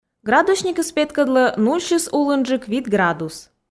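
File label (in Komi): "градусникыс петкӧдлӧ нульсьыс улынджык вит градус", Komi, female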